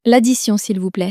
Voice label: "l'addition s'il vous plaît", French; flashcards

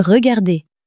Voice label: "regarder", French

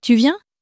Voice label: "tu viens", French

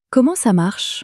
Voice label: comment ça marche, common french words

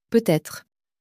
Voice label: "peut-être", French

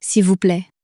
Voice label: "s'il vous plaît", French; flashcards

